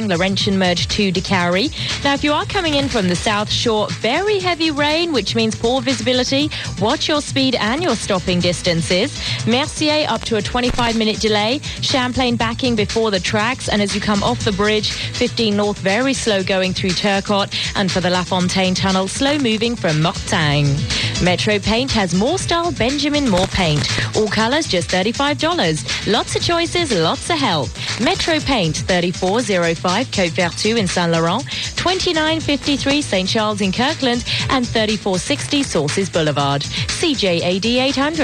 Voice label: morning show; radio